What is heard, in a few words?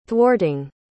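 "thwarting", English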